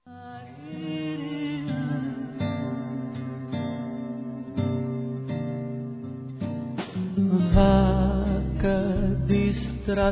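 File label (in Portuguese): Masculino, Telemensagens Aniversários; ROMANTICO LEVE; Telemensagens 2017; Aniv Familiar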